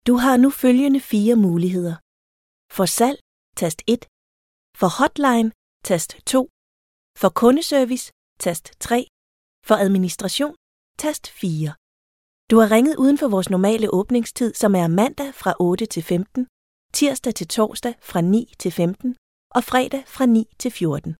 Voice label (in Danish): speak voice-response